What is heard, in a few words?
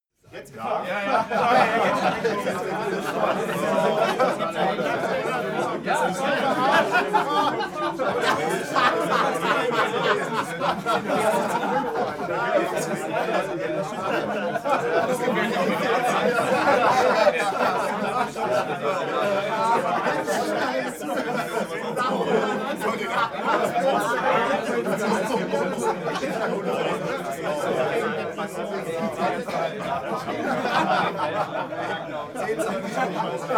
talk happy long